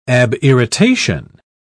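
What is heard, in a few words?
"abirritation", British English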